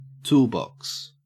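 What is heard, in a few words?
"toolbox", Australian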